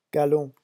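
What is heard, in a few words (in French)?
"galon", French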